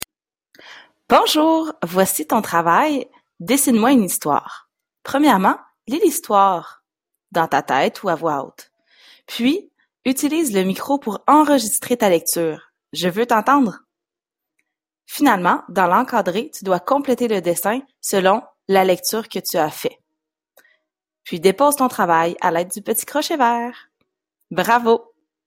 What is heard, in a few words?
french